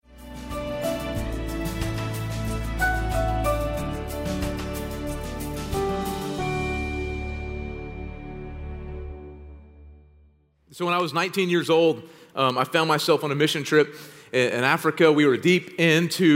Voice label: Sermon English